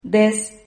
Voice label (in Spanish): "dez", Silabas